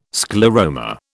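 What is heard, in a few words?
"scleroma", British English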